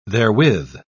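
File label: "therewith", British English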